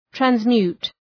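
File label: "transmute", English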